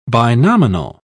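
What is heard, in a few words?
"binominal", British English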